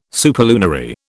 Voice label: "superlunary", British

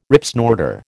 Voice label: "Ripsnorter", American

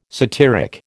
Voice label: "satyric", American

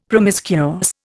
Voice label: "promiscuous", Indian; Dictionary

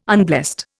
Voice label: "unblessed", Indian

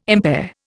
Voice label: "impair", Indian

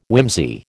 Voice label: "whimsy", American

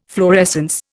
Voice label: "fluorescence", Indian

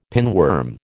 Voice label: "pinworm", American

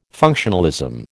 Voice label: "functionalism", American

